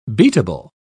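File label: "beatable", British English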